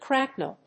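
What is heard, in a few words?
"cracknel", English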